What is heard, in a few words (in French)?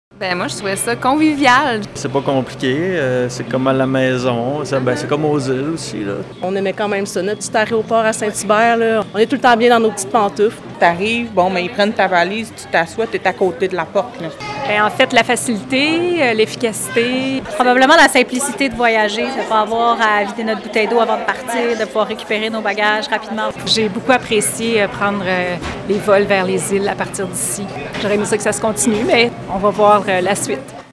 VOX POP; TRANSPORT; DERNIER JOUR TERMINAL PASCAN; dernier appel pour les passagers du terminal de Pascan